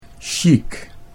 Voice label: "sjiek", Dutch; chique (sjiek); 'chique, classy'

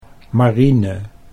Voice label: "marine", Dutch

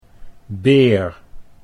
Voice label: "beer", Dutch

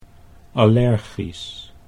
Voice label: "allergisch", Dutch

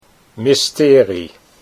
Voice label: "mysterie", Dutch

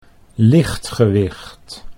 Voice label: "lichtgewicht", Dutch

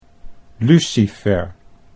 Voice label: "lucifer", Dutch

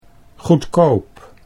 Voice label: "goedkoop", Dutch

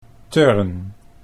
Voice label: "turn", Dutch

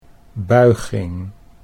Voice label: "buiging", Dutch

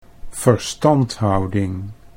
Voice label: "verstandhouding", Dutch

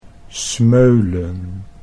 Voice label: "smeulen", Dutch

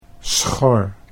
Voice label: "schor", Dutch

